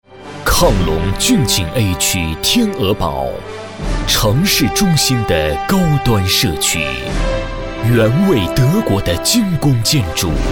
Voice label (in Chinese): 男 广告 磁性大气, 国语男声配音, 浑厚; 天鹅堡